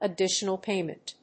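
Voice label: "additional payment", English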